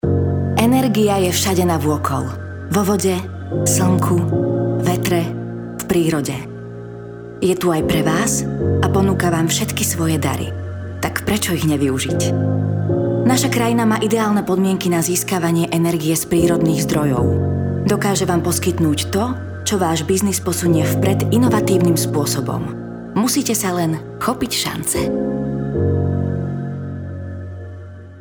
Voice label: voiceover